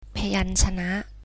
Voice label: consonants, thai